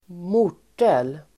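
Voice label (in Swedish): "mortel", Swedish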